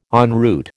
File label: "en route", American